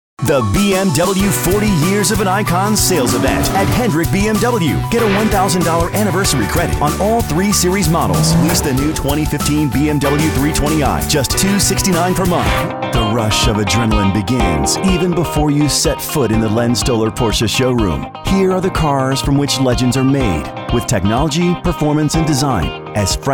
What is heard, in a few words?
Auto Voice